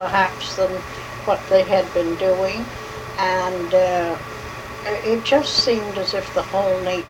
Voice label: Oral history with 85 year old white female Concord Massachusetts, american english dialect